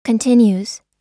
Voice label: continues, us

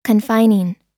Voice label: confining, us

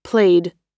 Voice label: "played", US

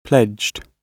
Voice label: "pledged", GB

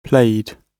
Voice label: "played", GB

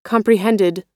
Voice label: "comprehended", us

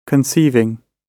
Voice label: conceiving, gb

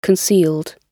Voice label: concealed, gb

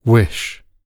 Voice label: wish, gb

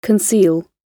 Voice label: conceal, gb

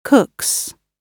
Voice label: "cooks", gb

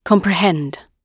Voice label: "comprehend", gb

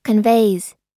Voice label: conveys, us

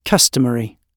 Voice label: "customary", gb

